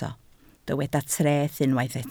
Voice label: "treth", Welsh